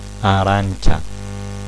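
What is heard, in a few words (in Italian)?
"arancia", Italian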